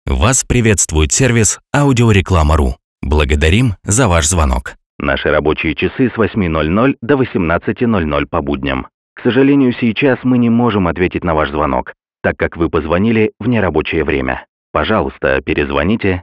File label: male ivr